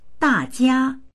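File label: "da4jia1", Chinese; 大家; みんな; dictionary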